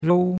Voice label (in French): "rou1", chinois; Cours-de-Chinois